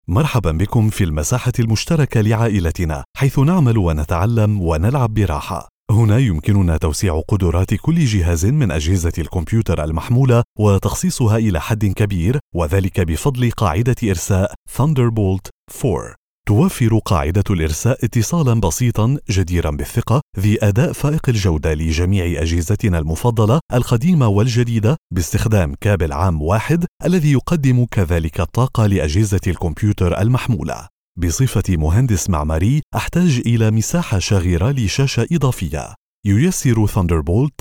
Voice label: arabic e-learning

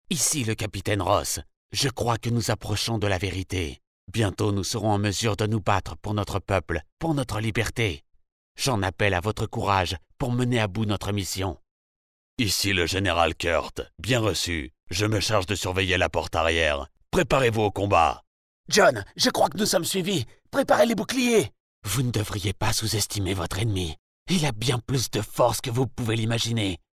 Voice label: french video games

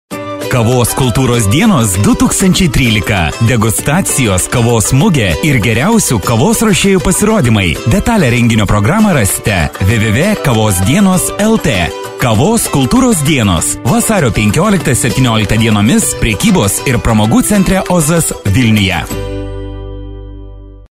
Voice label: lithuanian commercial

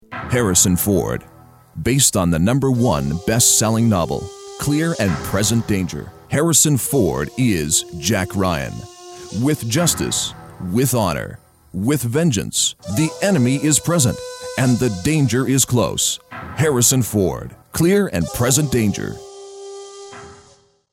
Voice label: english canadian movie trailers

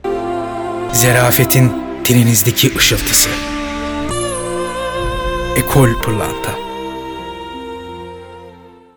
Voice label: Turkish commercial

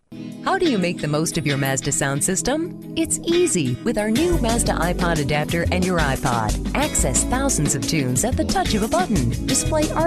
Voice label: english american e learning

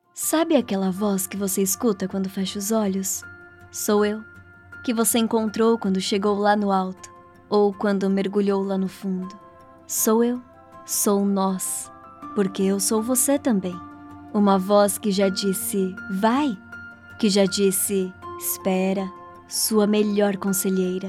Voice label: portuguese brazilian corporate videos

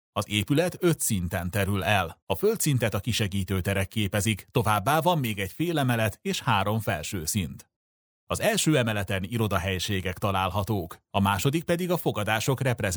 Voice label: Hungarian audio guides

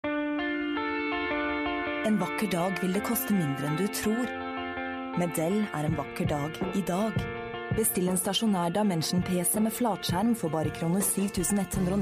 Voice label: norwegian commercial, norwegian voice actors; Noruego; Reino Unido

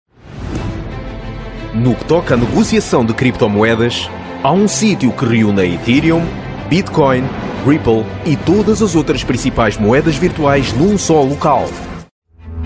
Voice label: portuguese commercial, comical

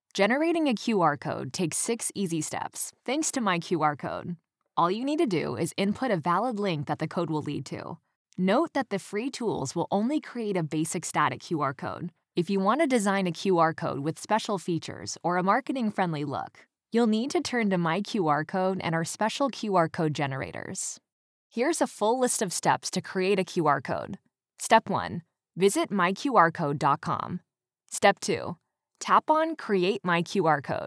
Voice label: english american e-learning

